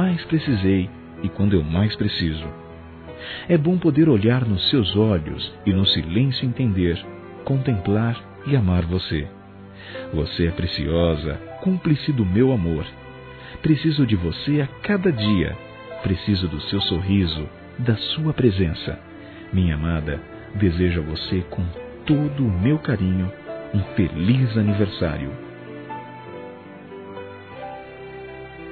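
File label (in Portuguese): Esposa Amante Masculino; Aniversários; ANIVERSARIO romantico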